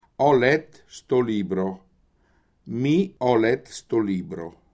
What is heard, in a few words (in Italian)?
belluno ho letto questo libro; pretest; fragebogen